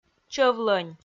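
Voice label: "чӧв-лӧнь", Komi-Zyrian, female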